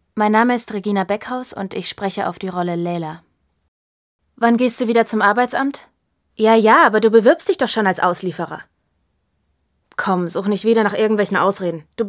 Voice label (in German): Schauspiel Lebendig